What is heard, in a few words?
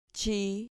"chi", Spanish